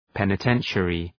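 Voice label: "penitentiary", English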